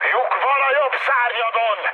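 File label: Hungarian right wing mood high, speech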